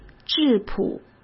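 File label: "质朴", Mandarin Chinese; chinese flashcards; hsk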